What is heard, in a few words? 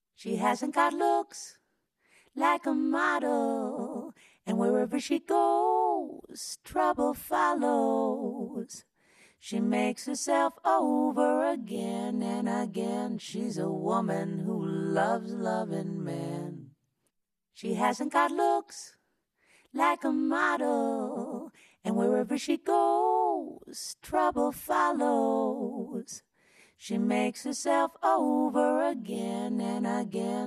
Vocals UltraShifter; Eventide